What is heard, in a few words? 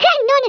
"amazing", Speech, Dutch; International; 11khz; CyberMycha Extra